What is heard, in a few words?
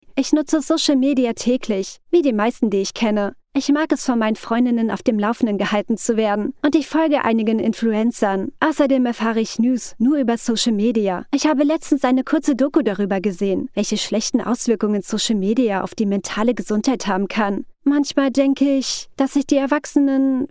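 Elearning Schülerin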